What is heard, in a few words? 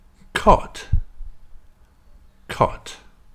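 "caught" "cot", pronunciation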